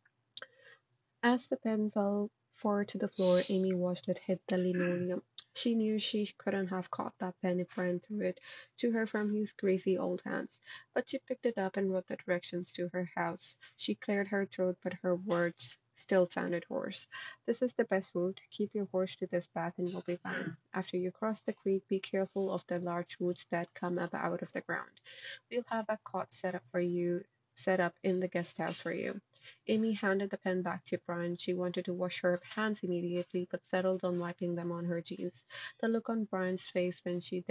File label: Spoekn english; Audio Recording of Spoken English